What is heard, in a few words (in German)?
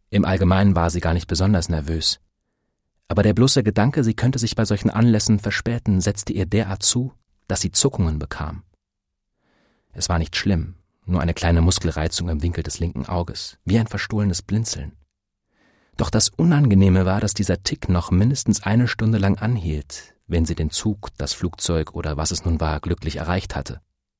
hoerbuch